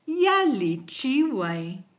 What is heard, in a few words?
excuse me, kichwa